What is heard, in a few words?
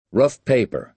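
"rough paper", American English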